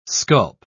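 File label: "sculp", English, British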